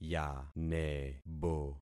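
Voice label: "yanebo", concatenated syllables; Affixing experiment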